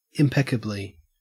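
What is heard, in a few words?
"impeccably", Australian English; pronunciations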